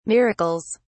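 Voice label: "miracles", British English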